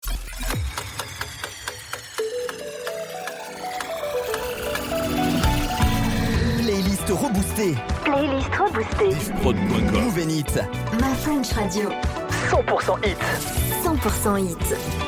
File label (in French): Top H 21h Sans Cage, habillage; Moov N Hits